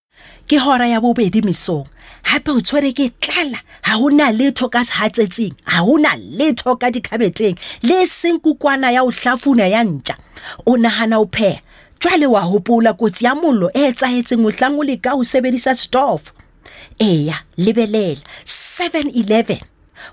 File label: Sesotho Conversational